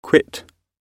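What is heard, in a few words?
"quit", English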